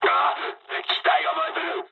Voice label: on fire mood high, speech jp; War Thunder

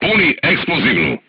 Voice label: voice message load HE, crew tanks serbian

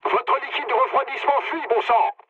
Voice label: damaged water mood low, speech fr; War Thunder

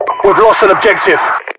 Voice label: lost obj, localized english, UK voiceovers; mpvoice

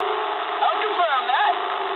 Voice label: eng player killed enemy mood low, speech eng

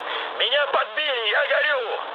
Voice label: on fire, mood med, speech ru; War Thunder

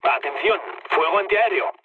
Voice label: damaged aa, mood low, speech; War Thunder

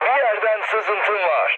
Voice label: tank damaged, mood med, speech tur; War Thunder

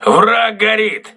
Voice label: voice message enemy on fire, crew ships russian

